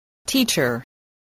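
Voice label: "teacher", English word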